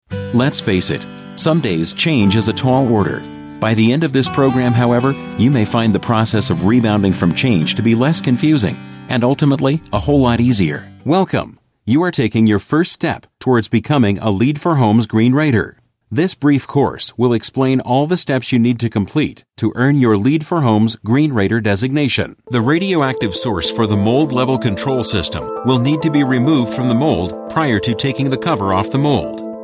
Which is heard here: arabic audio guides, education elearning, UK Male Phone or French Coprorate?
education elearning